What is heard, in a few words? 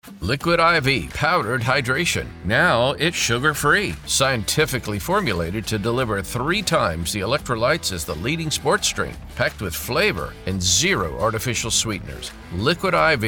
Commercial; Liquid IV